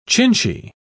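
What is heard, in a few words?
"chinchy", English, British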